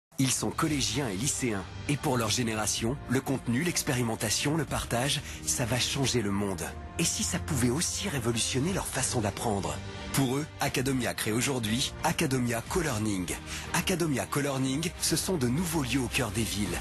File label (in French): spot radio; acadomia